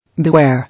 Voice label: "Beware", English

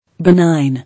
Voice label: "Benign", English

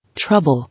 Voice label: "Trouble", English

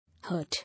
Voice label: "Hurt", English

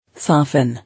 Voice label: "Soften", English

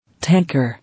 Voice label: "Tanker", English

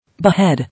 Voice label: "Behead", English

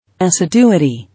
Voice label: "Assiduity", English

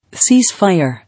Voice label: "Ceasefire", English

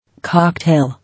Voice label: "Cocktail", English

